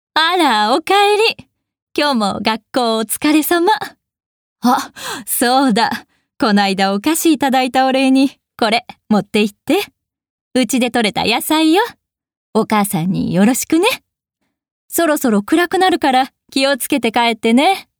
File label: female obasan; おばさん